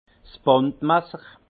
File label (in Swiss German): "bandmasser", alsace; vin